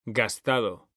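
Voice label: "gastado", Spanish, male; mekanta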